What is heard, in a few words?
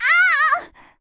Female; ChooChoo Heroes